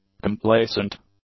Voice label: "complaisant", English; adjectives